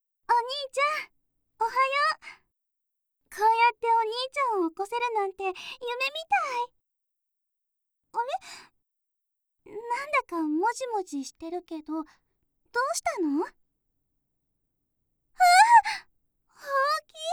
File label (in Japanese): 芽依ちゃんのとってもＨなおはようボイス